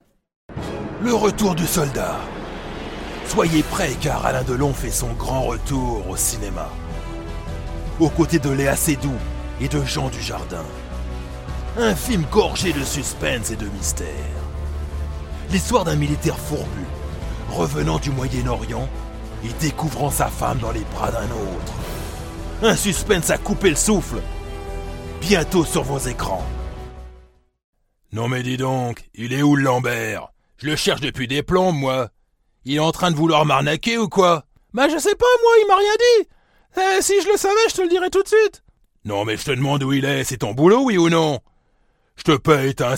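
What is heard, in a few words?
French Characters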